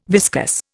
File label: "viscus", Indian